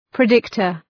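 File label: "predictor", English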